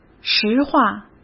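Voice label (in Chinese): "实话", Mandarin; chinese-flashcards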